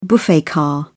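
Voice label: "buffet car", British English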